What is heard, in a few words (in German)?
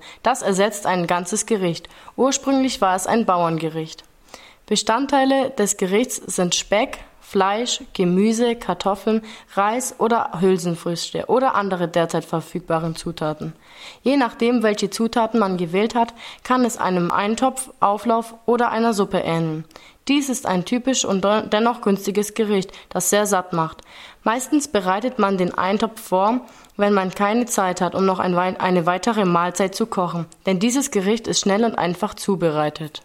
deutsch; Eintopf